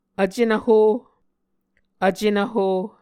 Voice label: "vcenvho", Muscogee